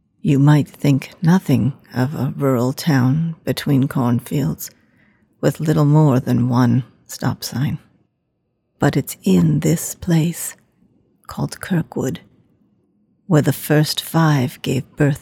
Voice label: Animation Video Game Characters